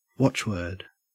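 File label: "watchword", Australian English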